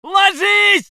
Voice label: voice sov infantry